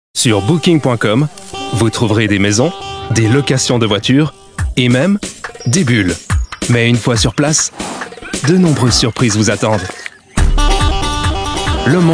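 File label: French, male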